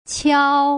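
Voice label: "qiao", Putonghua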